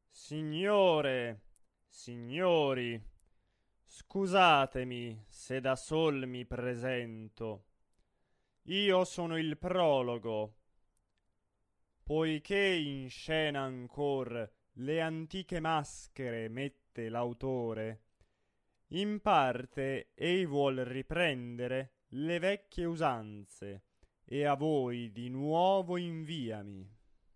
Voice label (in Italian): espressivo; Pagliacci